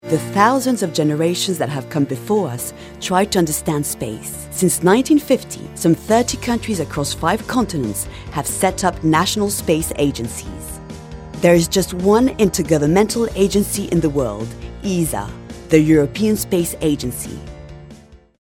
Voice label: Voix Anglaise; ESA

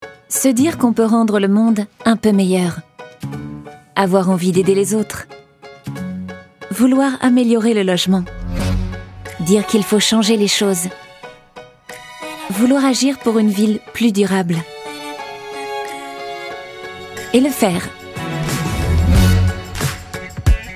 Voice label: instit FR; Action logement

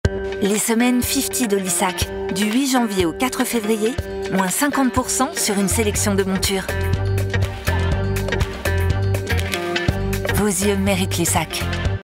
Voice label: pub fraiche dynamique; LISSAC Fifty

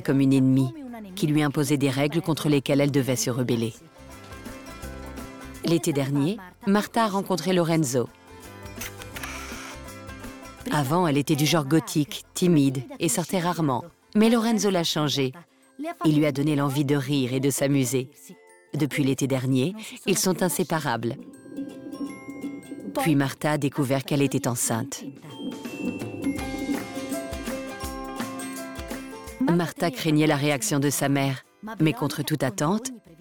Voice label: NARRATION MAMAN; MAMAN AVANT 16 ANS

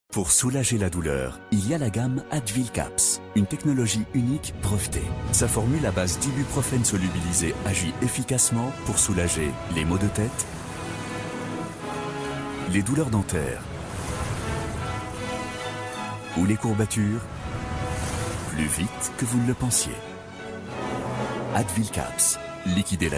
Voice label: Spot TV; Advil Caps

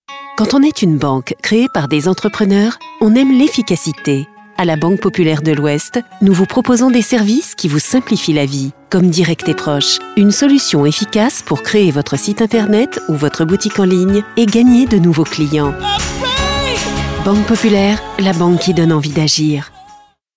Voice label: spot direct et proche

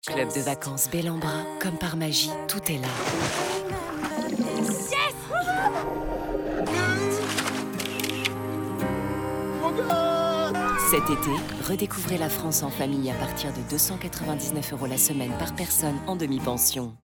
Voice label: Spot TV; Belambra; ADOS

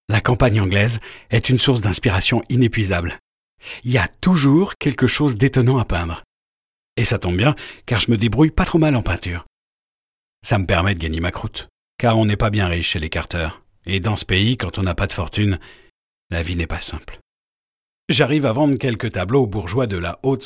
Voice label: Podcast Naturel; Howard Carter

